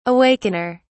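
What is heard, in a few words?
"awakener", British English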